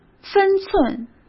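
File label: "分寸", Mandarin Chinese; chinese flashcards; hsk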